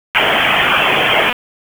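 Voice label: Police Southwest District Disp, radio; wake p25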